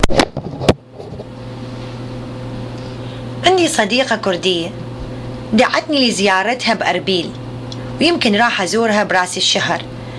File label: Dialogue about Erbil City, Iraqi Dialect